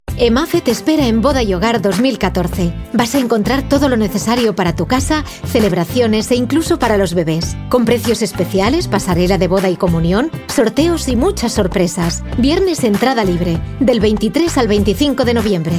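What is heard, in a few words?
female, Spanish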